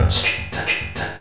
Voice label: ODD VOX, ROBOT VOX; Zero-G Ambient 1